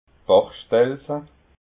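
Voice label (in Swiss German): "bachstelze", Alsatian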